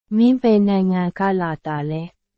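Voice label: Burmese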